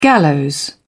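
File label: "gallows", British English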